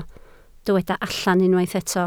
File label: "allan", Welsh